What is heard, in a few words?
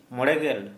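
fifty, Santali, male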